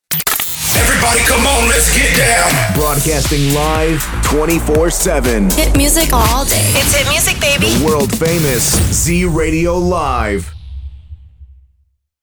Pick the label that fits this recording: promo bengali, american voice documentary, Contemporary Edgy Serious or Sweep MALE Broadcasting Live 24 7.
Sweep MALE Broadcasting Live 24 7